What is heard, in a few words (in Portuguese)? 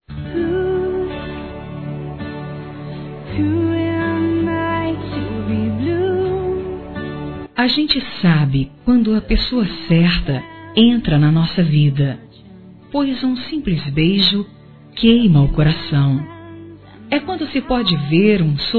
Primeira Vez Feminino, Românticas; Telemensagens